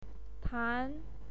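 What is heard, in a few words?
"tan2", Mandarin Chinese pinyin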